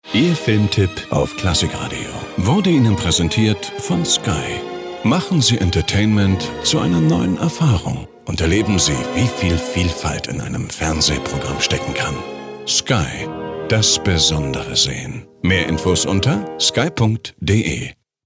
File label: Radio Presenting